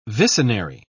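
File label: "vicenary", British English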